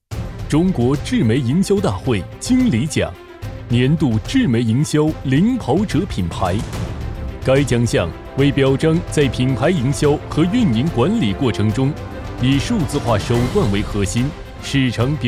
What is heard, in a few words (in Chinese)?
男 颁奖; 中国智媒颁奖